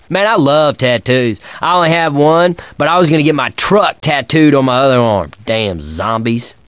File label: chat; l4d2 ellis